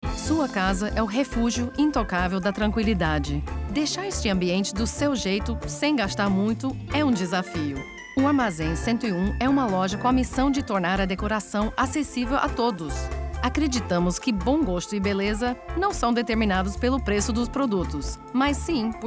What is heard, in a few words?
Comm Informative